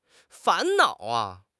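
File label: "fan2 nao3", Mandarin Chinese; HSK; HSK4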